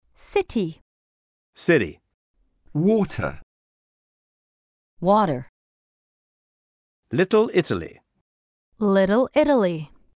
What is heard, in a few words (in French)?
us vs uk la lettre t